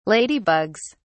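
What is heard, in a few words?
"ladybugs", British English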